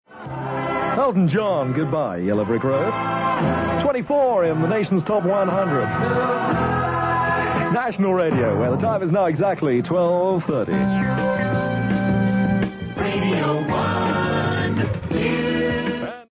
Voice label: news